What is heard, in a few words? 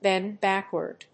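"bend backward", English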